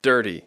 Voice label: "dirty", English

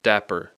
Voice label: "dapper", English

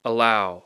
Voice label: "allow", English

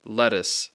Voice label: "lettuce", English; Speech Pro

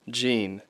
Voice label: "gene", English

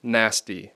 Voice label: "nasty", English

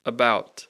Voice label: "about", English

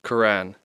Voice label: "Quran", English

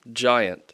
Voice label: "giant", English

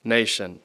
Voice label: "nation", English

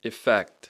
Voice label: "effect", English

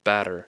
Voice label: "batter", English

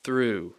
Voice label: "through", English

